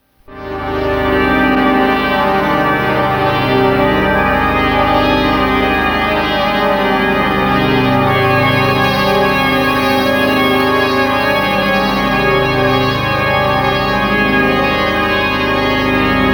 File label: Outgoing Message Dreamy